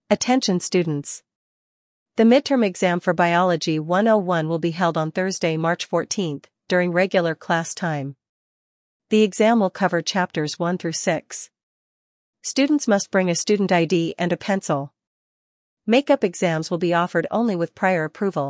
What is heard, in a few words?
announce